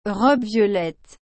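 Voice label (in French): "robe violette", French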